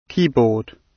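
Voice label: "keyboard" British; Technology; Công nghệ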